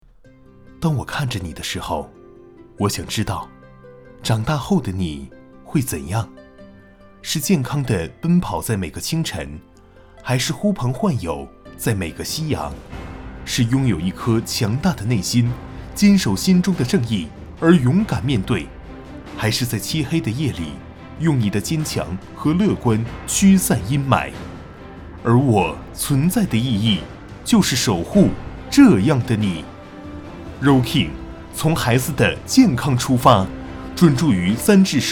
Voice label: 年轻温暖励志广告

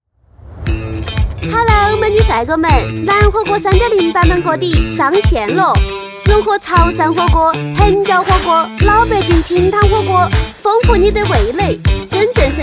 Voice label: 四川 方言 促销 火锅; 四川方言促销-楠火锅; 欢快

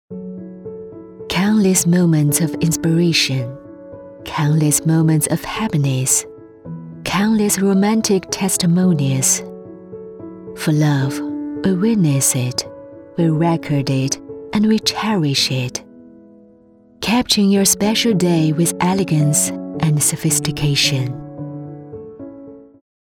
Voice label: 英文柔和旁白; 自然; 走心

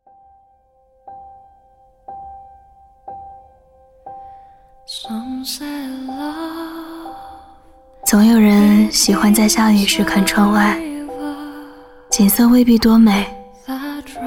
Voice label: 旁白 自然

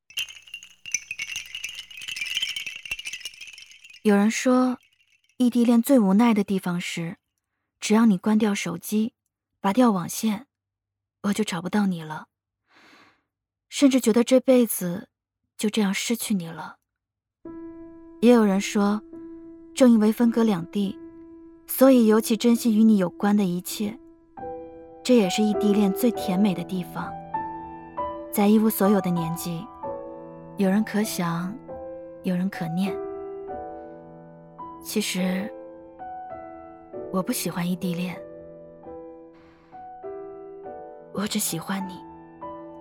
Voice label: 旁白

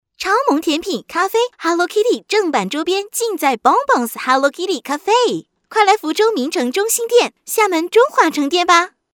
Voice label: 中文促销

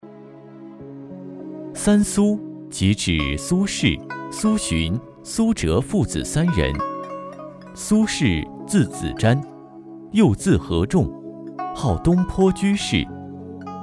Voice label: 纪录 讲述; 三苏

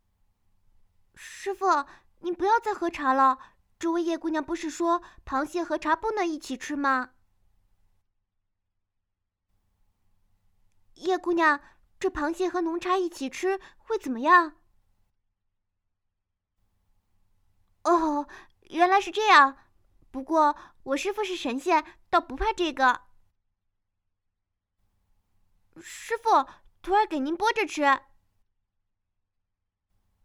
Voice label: 少女音

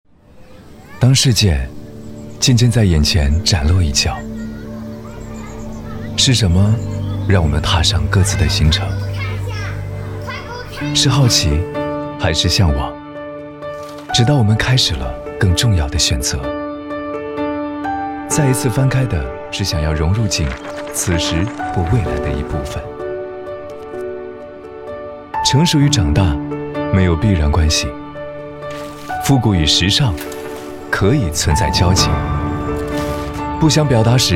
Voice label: 高端男温暖自然; 京东图书

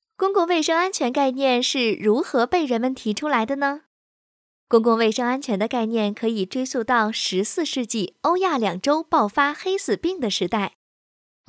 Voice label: 女青少年课件

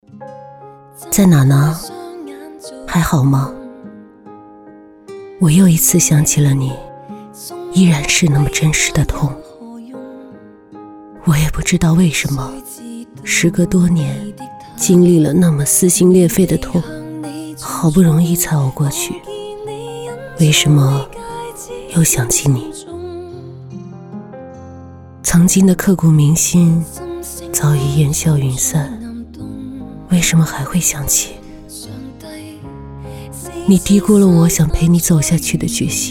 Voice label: 走心独白情感电台

